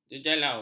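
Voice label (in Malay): "jenjalau", Malay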